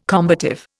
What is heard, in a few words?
"combative", Indian